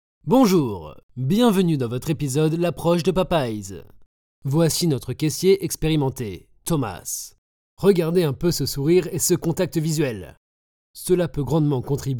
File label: corporative, French, male